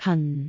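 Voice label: "han4", Cantonese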